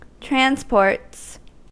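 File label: "transports", US English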